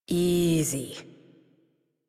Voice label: Horse Calm Adult; Warframe; Duviri

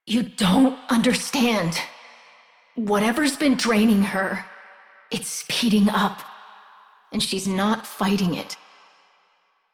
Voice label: Operator Voice; Warframe; Jade Shadows Quest

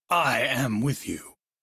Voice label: Crew Follow, Male; Dialog; en; Warframe; Railjack Crew; Arbiters Hexis

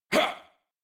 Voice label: Abil Jump, en; Warframe; New War; Teshin

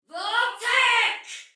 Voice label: Attack Soldier Female, Battle; Dialog; Barks; en; Sinecure

